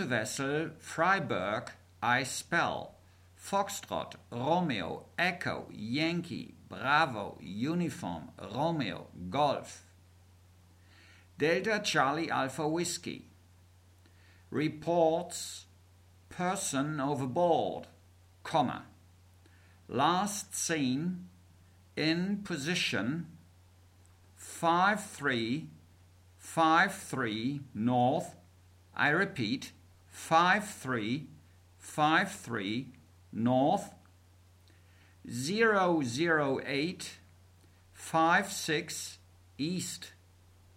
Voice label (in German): Seefunktext